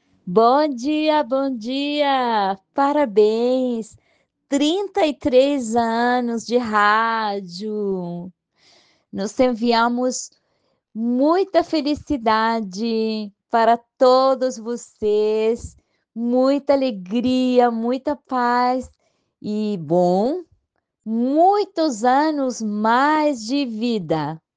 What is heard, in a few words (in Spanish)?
OYENTE EN PORTUGUES; Paraguay brasil y francia